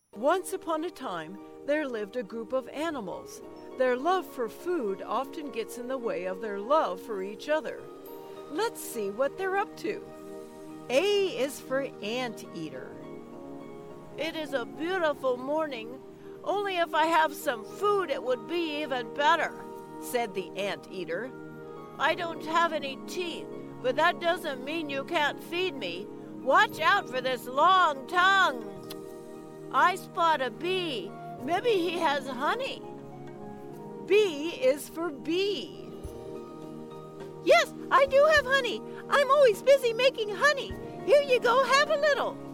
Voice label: retail sample with music; A to Z Animals

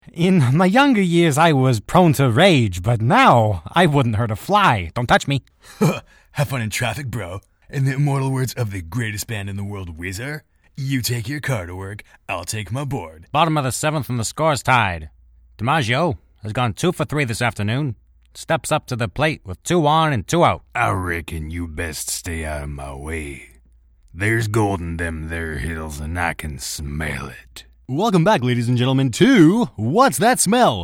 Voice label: Character Reel

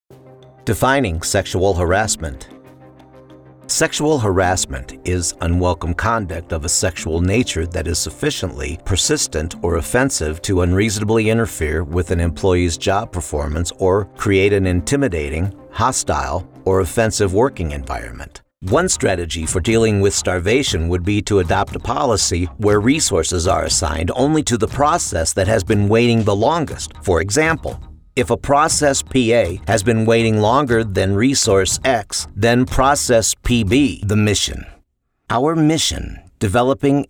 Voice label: Elearning

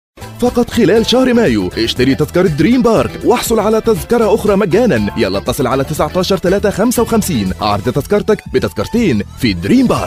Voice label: arabic commercial egyptian

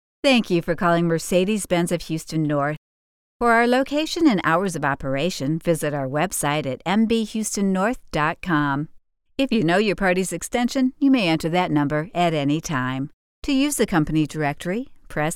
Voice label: Auto Attendant; MercedesBenz

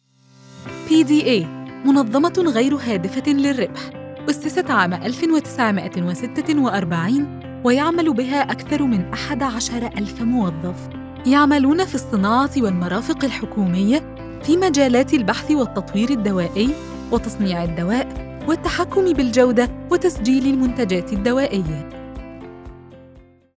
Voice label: MSA Corporate Narration; PDA

